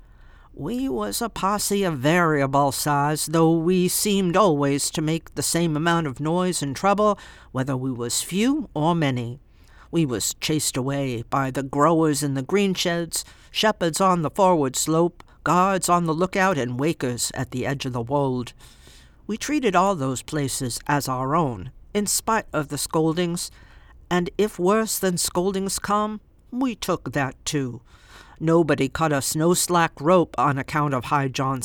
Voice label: 1st Person Narrator Dialect; Koli

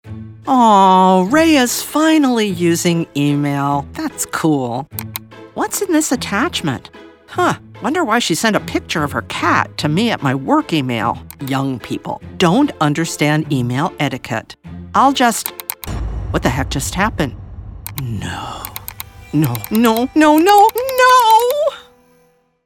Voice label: eLearning Character; Cyber Attack

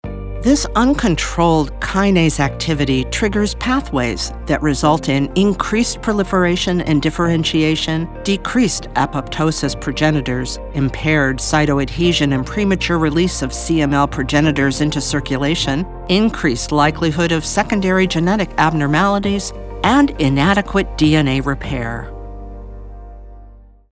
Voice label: eLearning Medical Narr Mechanism of Disease

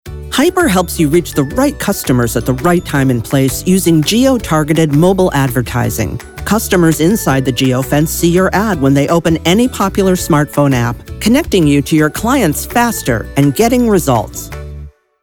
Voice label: Corp Hyper

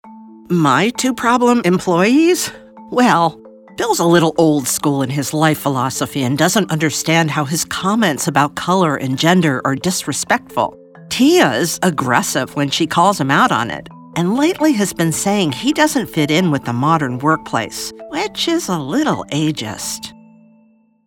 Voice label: eLearning Character Modern Workplace

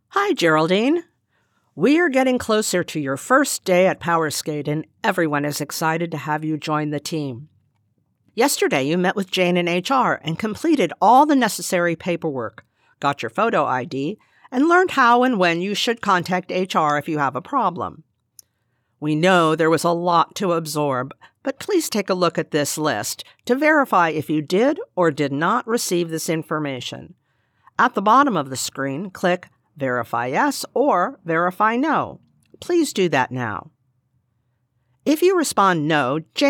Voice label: eLearning Onboarding Sample

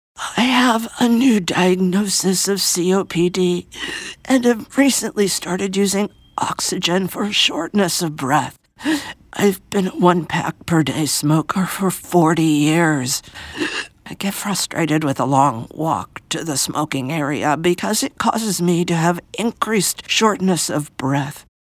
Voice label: eLearning Character Smoker

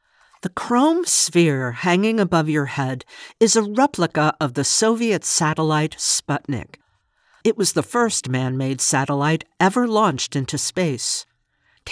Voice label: Museum Tour; Sputnik

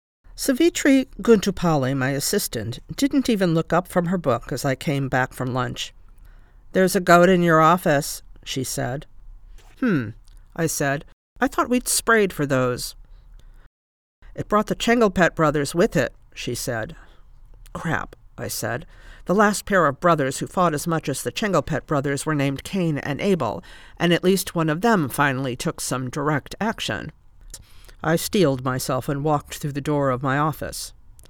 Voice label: Fiction Multiple Char Accents; Last Colony

